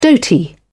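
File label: "dhoti", British English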